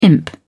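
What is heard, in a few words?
"imp", British English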